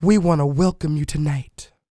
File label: DIALOG, FEM SPOKEN; R&B & Dance; ILIO; Vocal Planet